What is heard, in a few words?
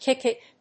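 "kick it", English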